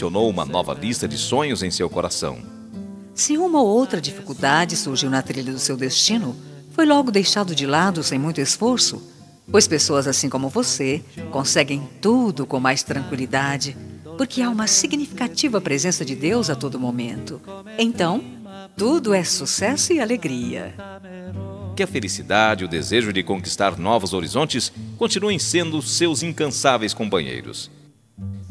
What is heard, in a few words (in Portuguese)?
ANIV PARA AVO, telemensagem